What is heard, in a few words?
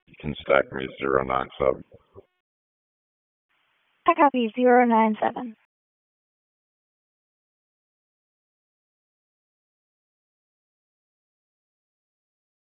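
Raleigh Police Southeast District Dispatch, p25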